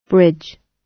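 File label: "bridge", British English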